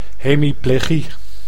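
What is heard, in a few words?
"hemiplegie", Dutch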